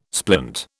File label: "splint", British